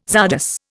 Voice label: "czardas", Indian